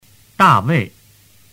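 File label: "dawei" Chinese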